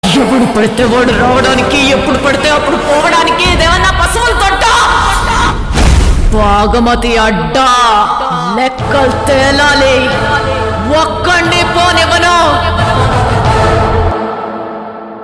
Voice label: Dialogue Telugu; Bhaagamathie